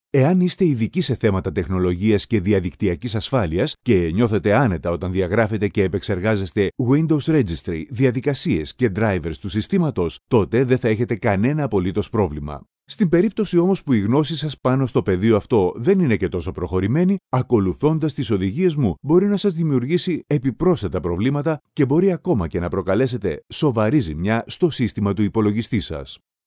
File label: male greek voice over talent narrative